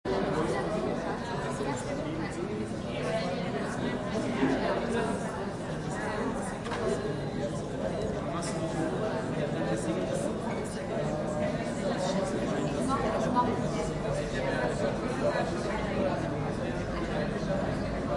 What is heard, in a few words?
Ambient Talk; rpg